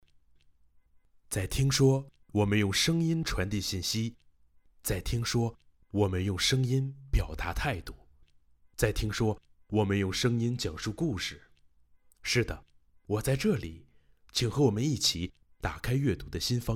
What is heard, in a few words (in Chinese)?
讲述类